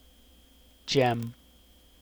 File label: "gem", syllab en; kdeedu; klettres